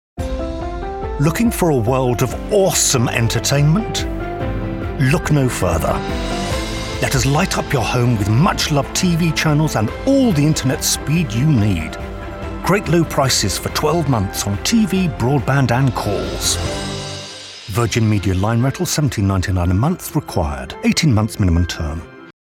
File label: male english commercial; Virgin Media